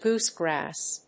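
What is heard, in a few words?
"goosegrass", English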